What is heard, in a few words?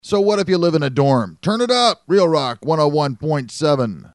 sweeper